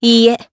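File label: Vox Deny, Japanese; Lorraine; kings raid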